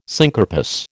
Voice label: "syncarpous", American

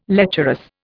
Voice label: "lecherous", Indian

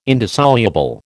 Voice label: "indissoluble", American

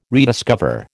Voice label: "rediscover", American

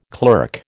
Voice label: "clerk", American

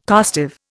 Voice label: "costive", Indian

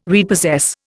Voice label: "repossess", Indian

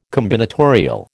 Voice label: "combinatorial", American

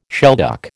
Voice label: "shelduck", American

